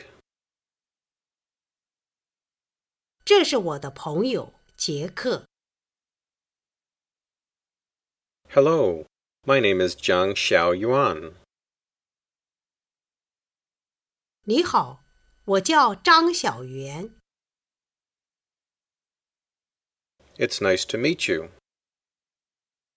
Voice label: Dialog; Chapter 3: Getting Together